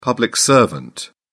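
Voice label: "public servant", British English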